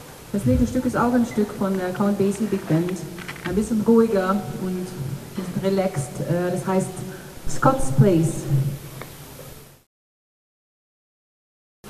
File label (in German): Ansage